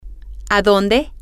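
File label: to where, Spanish, female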